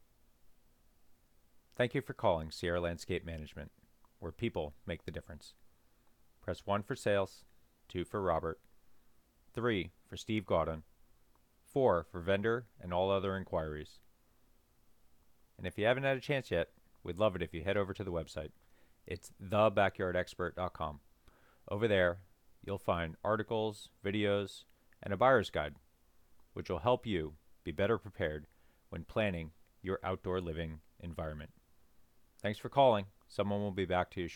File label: Voice Mail